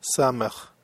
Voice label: "samech", hebrew